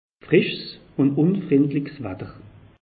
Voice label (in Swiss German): "frischs", alsace; meteo